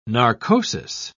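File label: "narcosis", British English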